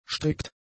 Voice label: "strikt", German; adjectives